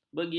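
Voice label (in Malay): "begini", Malay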